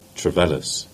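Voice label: "Trevellas", Cornish; place name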